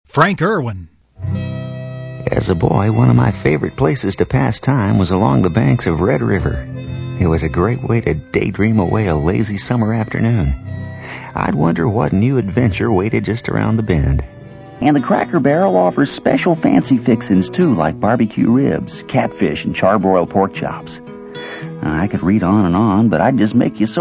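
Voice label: Male Talent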